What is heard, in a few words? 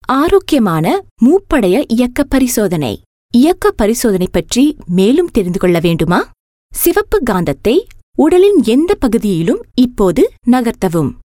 Tamil Corporate voice over; India